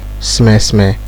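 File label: "smesme", Nigerian Pidgin, male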